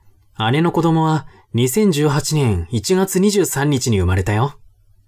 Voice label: man